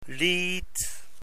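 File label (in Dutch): "liet", Dutch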